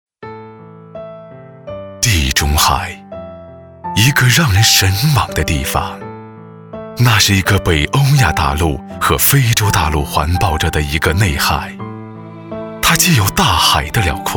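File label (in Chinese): 国语 男声 广告 大气浑厚 大气浑厚磁性 沉稳 科技感 积极向上 时尚活力; 卡梅尔小镇-地产广告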